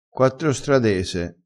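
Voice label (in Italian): "quattrostradese", vocabolario dialettale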